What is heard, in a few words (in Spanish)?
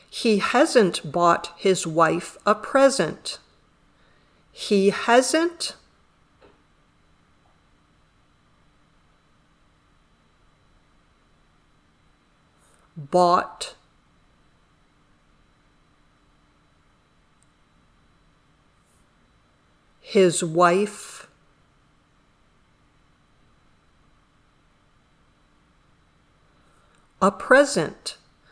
Dictado